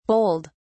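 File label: "bowled", English, British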